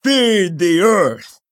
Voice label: Male Tortollan Attack